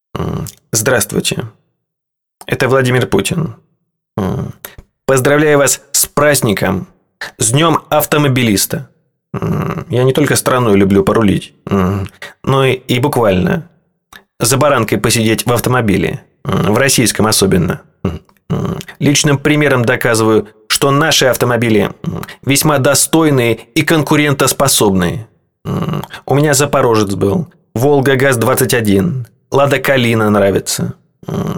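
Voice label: Phone call voice greeting card; Telefonnyj zvonok; Golosovaya otkrytka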